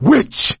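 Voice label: warn witch, voice, male; Left 4 Dead 2; coach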